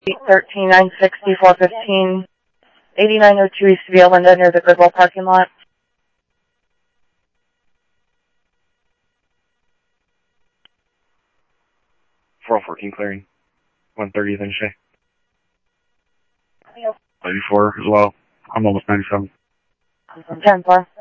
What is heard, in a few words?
Police, scanner audio; ThompsonPeakHigh; SCTSDLE PD - PD2 ScottsdalePolice